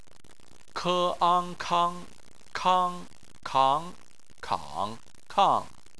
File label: "kang", chinese